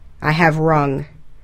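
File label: "I have rung", English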